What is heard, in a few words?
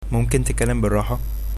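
slowly, Egyptian Arabic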